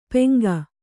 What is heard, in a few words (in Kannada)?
"ಪೆಂಗ", Kannada